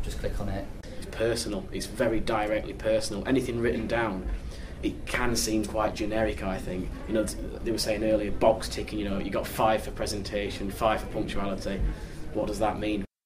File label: audio feedback student voices